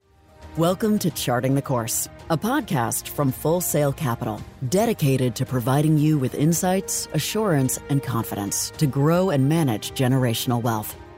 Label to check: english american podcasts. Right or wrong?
Right